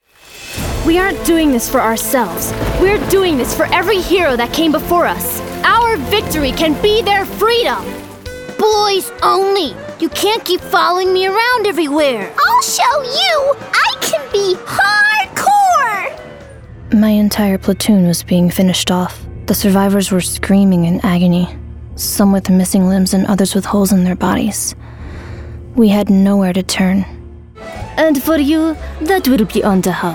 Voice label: Character